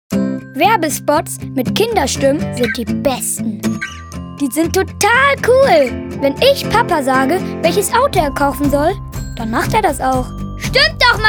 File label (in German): Werbung